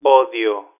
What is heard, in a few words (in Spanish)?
"odio", filt, telephone